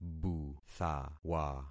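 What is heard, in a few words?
"buthawa", concatenated syllables; Affixing experiment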